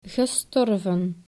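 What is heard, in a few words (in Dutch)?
"gestorven", Dutch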